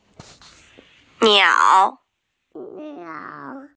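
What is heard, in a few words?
"niao3", Mandarin Chinese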